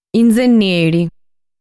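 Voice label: "Inzennieri", Sardinian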